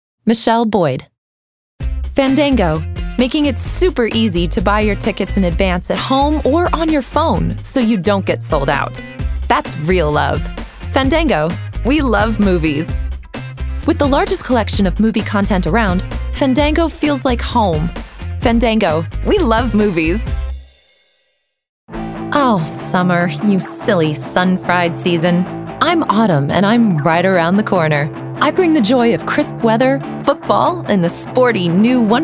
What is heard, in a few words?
Commercial VO